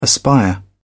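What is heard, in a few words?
"aspire", English, British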